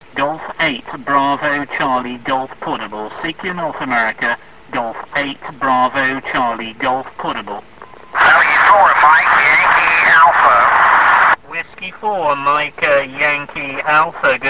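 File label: amateur radio contact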